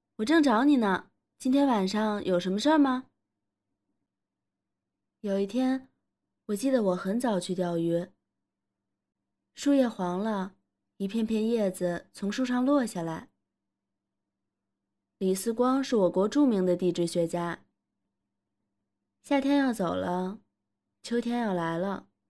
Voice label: 明星模仿; 杨紫

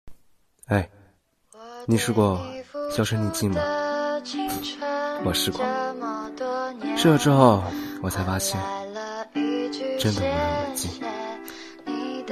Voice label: 配音

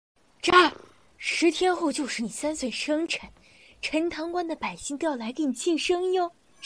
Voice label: 大妈音

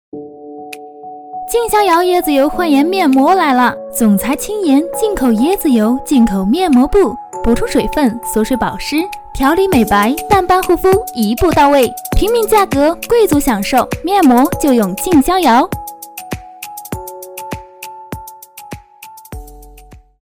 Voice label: 明媚广告甜美俏皮面膜

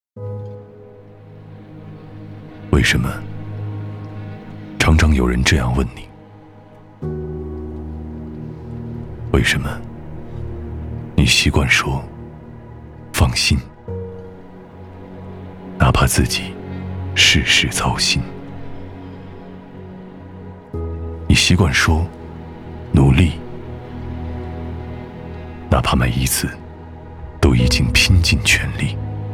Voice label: 干声

